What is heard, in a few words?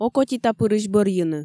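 "окотитапырысь бӧрйыны", Komi, female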